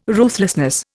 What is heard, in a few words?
"ruthlessness", Indian